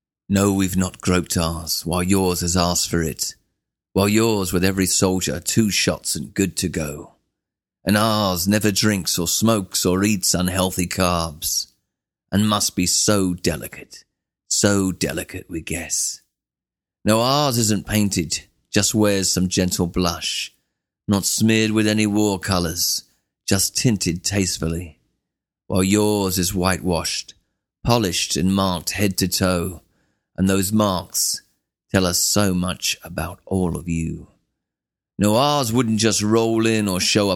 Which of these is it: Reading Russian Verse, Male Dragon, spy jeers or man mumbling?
Reading Russian Verse